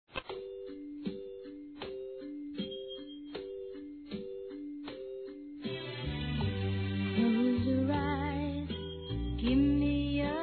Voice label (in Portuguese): declaração, declaração de amor, fem; Telemensagens; Telemensagens 2017